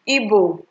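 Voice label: "ibo", Nigerian Pidgin, female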